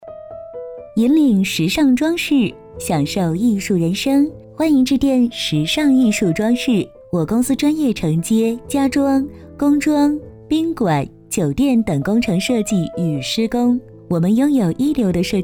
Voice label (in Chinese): 女,彩铃,时尚艺术装饰